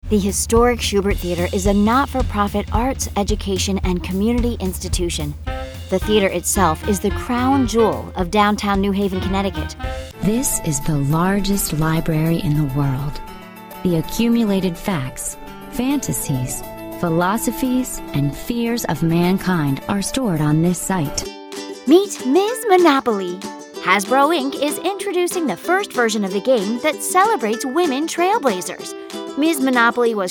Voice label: us corporate, kvinne; engelsk-us

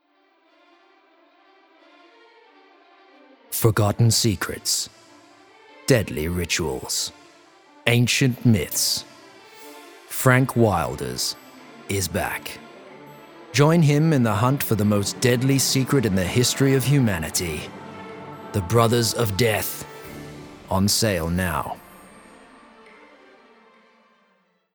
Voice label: uk movie trailer

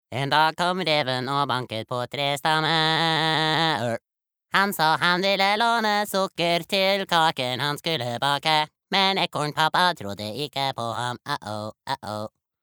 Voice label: no dub character robot

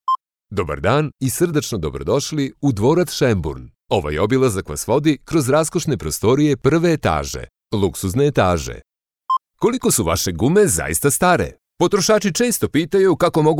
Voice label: narrations

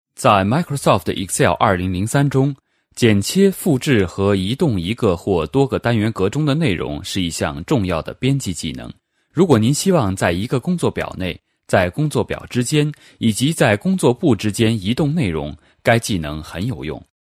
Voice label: cn elearning, chinese mandarin

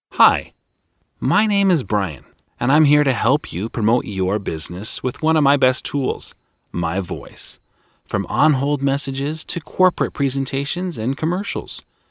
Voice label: US informative

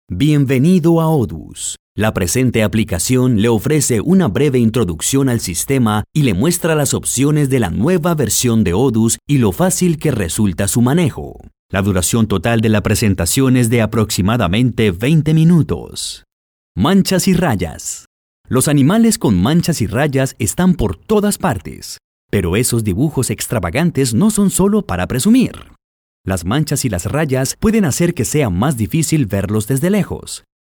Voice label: spanish latin american elearning

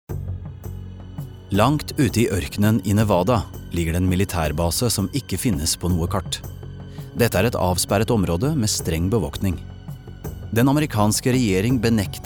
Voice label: no documentary

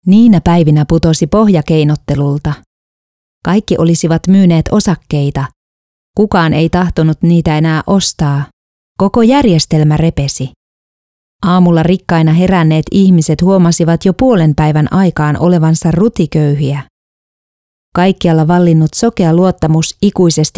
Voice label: fi audiobook, finnish

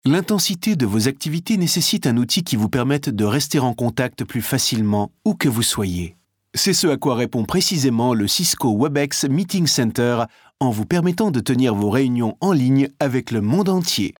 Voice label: fr informative, male; fransk

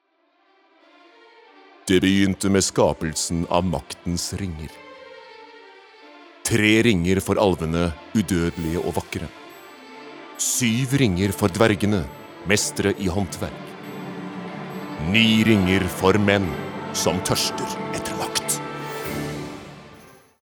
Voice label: no movie trailer

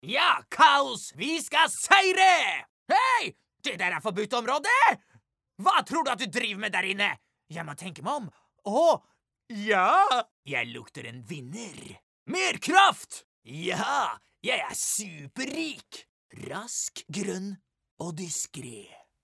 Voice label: dub bad guy

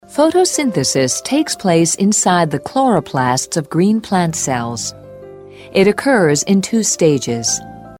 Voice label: us elearning

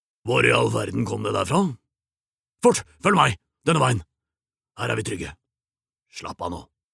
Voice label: dub, character

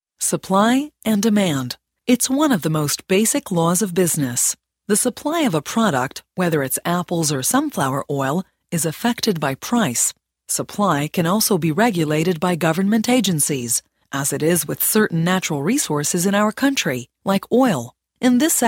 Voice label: US elearning

